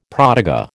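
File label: "protégé", American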